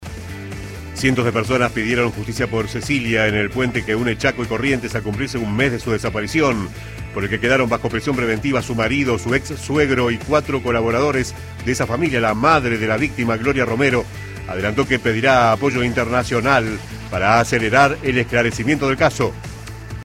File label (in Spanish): Informativo; Marcha Cecilia